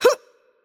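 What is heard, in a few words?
Mexican Spanish attack light voice; bloom minigame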